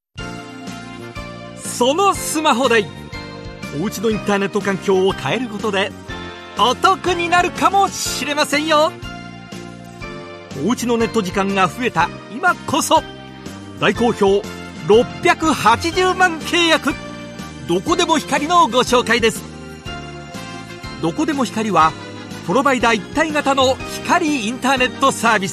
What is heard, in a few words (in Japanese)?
ハイトーン通販